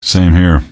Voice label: answer, male; npc; Garry's Mod; hl2